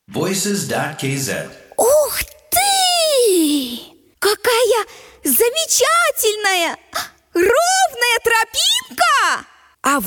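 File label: Russian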